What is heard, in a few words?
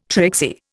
"tricksy", Indian